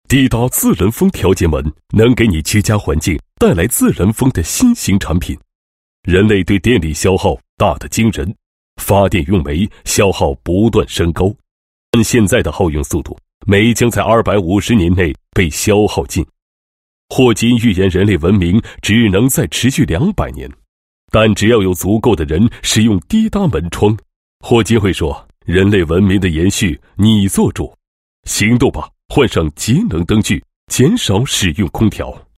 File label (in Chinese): 男 产品介绍; 嘀嗒自然风调节门简介